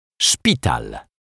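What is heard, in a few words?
"szpital", Polish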